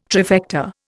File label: "trifecta", Indian